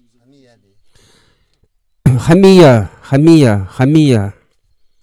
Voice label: female